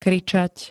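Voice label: "kričať", Slovak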